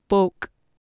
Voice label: "boc" Anglo Saxon